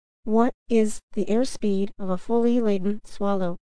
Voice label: high voice